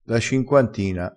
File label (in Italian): "cinquantina", crespinese